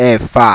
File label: "Efa", Yoruba